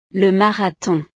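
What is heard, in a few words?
"le marathon", French; deportes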